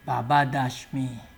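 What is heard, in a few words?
"baba dash me", Nigerian Pidgin, male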